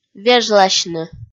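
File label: "вежласьны", Komi-Zyrian, female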